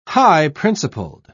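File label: "high-principled", English, British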